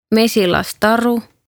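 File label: "Mesilastaru", Estonian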